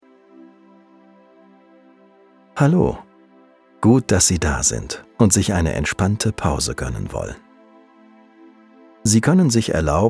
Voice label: meditation deutsch

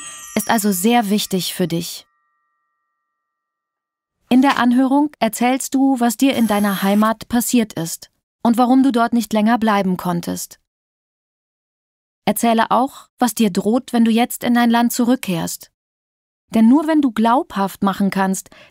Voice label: schulung asyl in deutschland; Sprecher in Köln

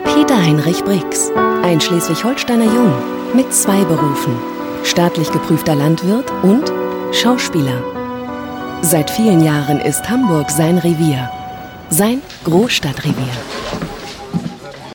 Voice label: doku portraet; ard

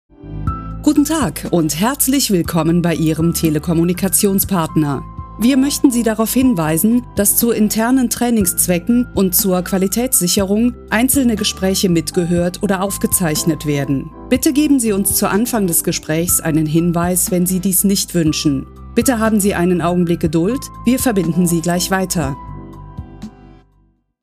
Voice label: telefonansage musik

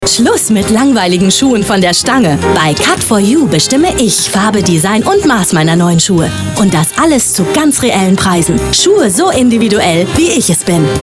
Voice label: werbung schuhe

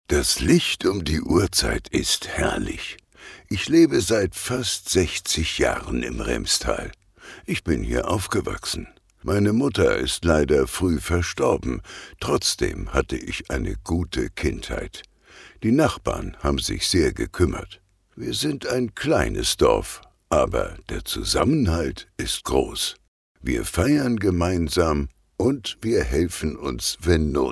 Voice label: senior; remstal

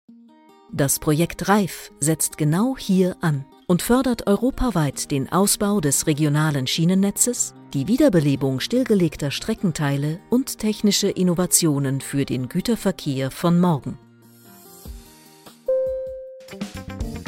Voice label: Imagefilm Mix; Bamberg, Bayreuth, Coburg, Nürnberg; Muttersprache Deutsch; projekt reif; european business school

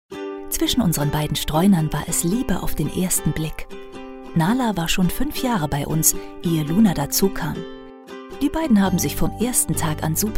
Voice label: Imagespot

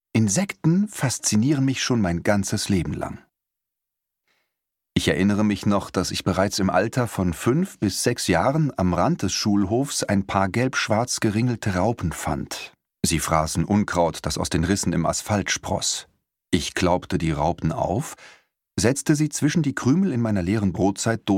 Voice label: hoerbuch; stumme erde

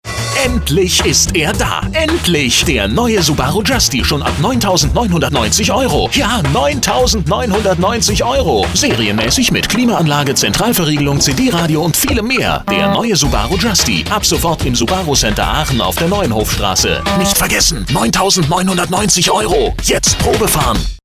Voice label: radio werbung lokal; subaru; Sprecher in Stuttgart; Muttersprache Deutsch

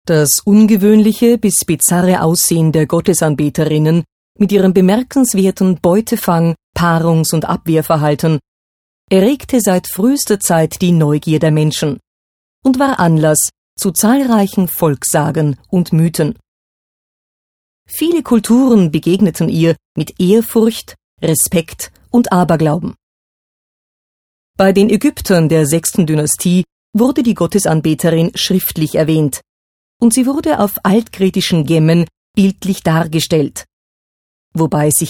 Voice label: Doku

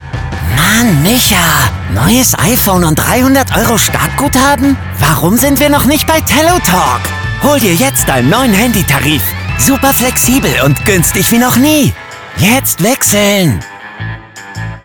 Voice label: werbung trickstimme ungeduldig

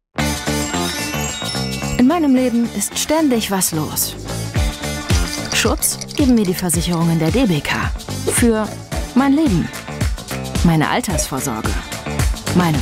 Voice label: imagefilm; debeka

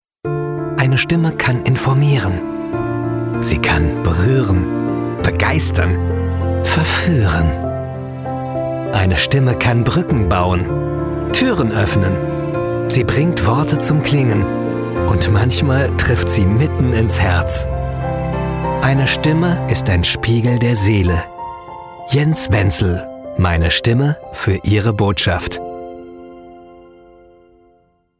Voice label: Werbung emotional

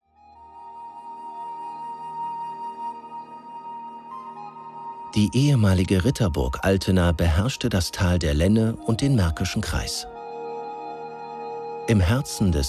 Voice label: doku von oben; Köln; Muttersprache Deutsch; nrwvonoben

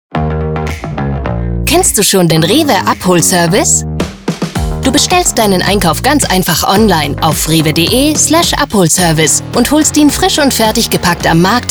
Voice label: HF-Spot Abholservice; rewe

